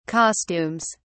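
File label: "costumes", British English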